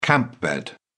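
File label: "camp bed", British English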